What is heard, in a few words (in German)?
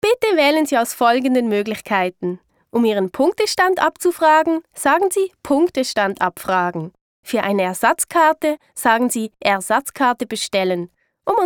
ch telefon, schweizerisch